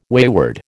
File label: "wayward", American